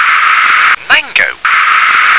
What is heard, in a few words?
"mango", English